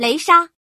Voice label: thunder slash, male; FreeKill; maneuvering